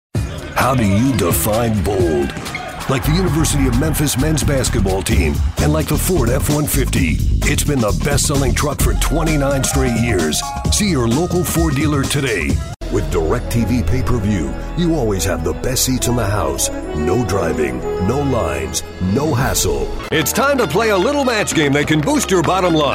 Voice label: COMMERCIAL; Moore Talent